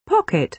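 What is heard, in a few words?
"pocket", British English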